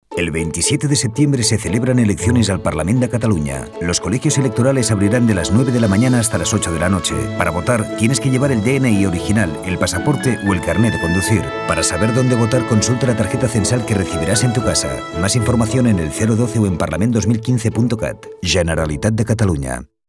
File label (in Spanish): INFORMATIVA CASTELLANO; FALCA